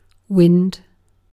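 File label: "wind", British English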